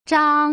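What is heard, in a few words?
"zhang", Mandarin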